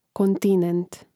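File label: "kontinent", Croatian; Mrežnik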